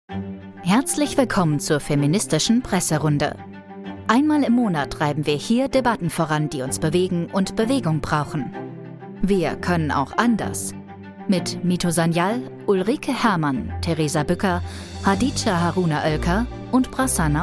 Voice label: german podcasts